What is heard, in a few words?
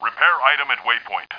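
male repair item; Starsiege; Tribes Demo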